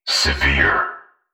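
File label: "severe", synthesized voice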